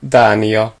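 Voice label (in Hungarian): "denmark", Hungarian; gcompris; geography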